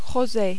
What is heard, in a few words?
"rose", French